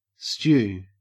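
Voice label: "stew", Australian English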